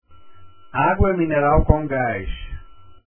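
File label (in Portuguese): "agua c gas", Portuguese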